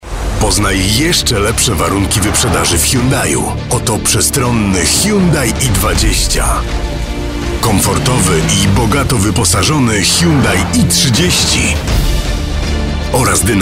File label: commercials mix